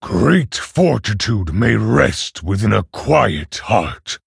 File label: Male Orc Ogre Picked